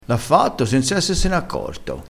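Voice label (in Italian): "essessene", vocabolario dialettale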